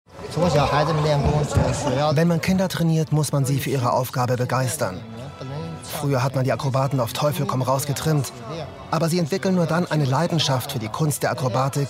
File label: voice over; ARTE